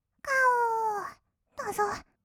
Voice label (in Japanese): がおー だぞっ, kawaii voice; ASMR; 色々なワンショット